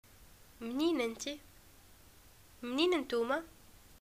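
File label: "getacqua where r u from", Tunisian Arabic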